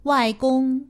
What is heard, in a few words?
"waigong", Chinese; Survival Chinese